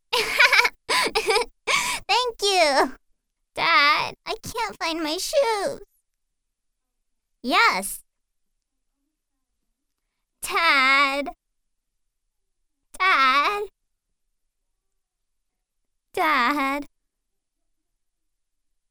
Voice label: 外籍女英 外语 美式英语 女孩

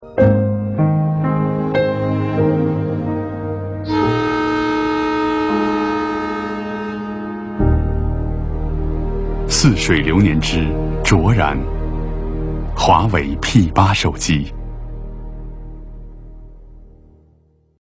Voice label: 男国 广告 手机通信 浑厚; 华为手机

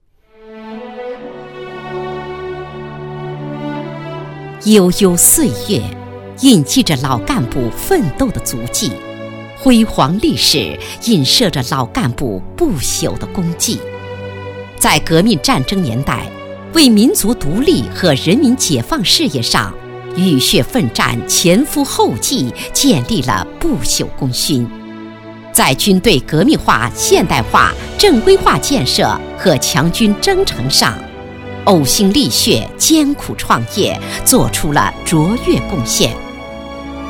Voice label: 专题 政府 大气; 浙江省军区宁波第一干休所

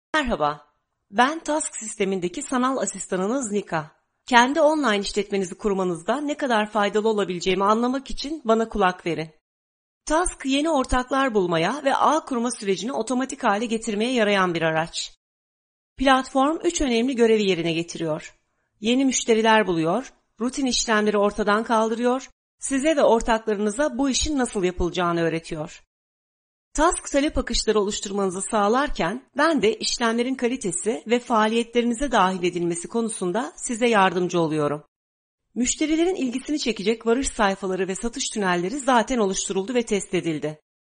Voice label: 土耳其语女 外语 小语种 样音

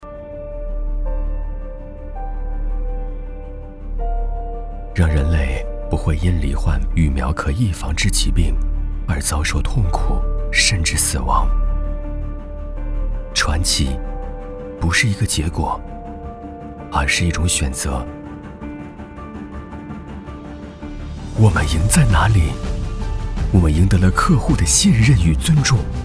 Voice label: 宣传片 企业 温情, 男; 赛诺菲巴斯德

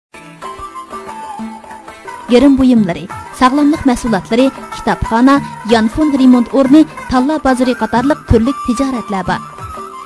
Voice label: 维吾尔语女,小语种; 外语

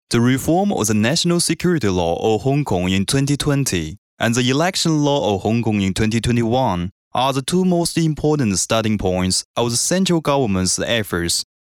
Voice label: 男英 专题 新闻; 香港新闻; 英语

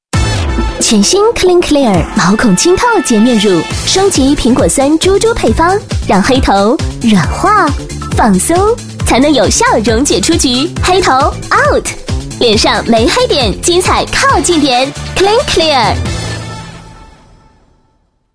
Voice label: 广告 化妆品 可爱, 女国; 可伶可俐

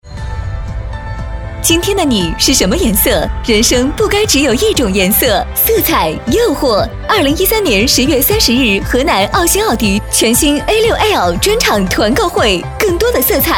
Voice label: 广告 汽车 欢快; 奥迪

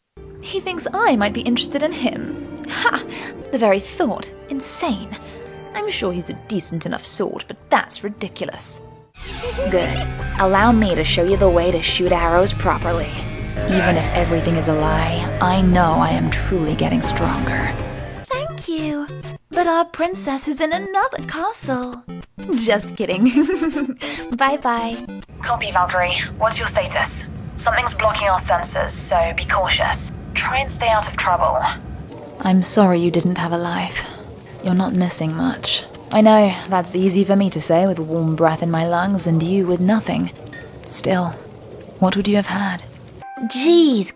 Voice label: 外籍女英 外语 英式英语 角色类样

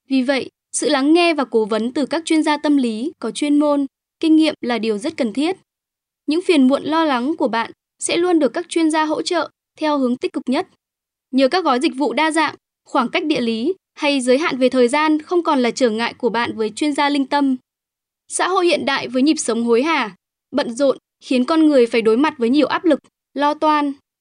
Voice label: 越南语女 外语 小语种

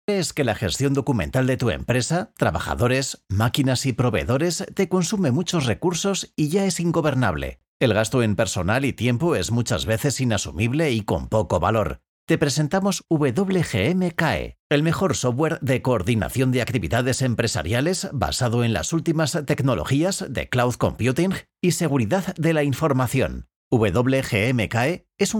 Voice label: 西班牙语男, 外语; 小语种

